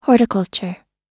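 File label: "horticulture", American English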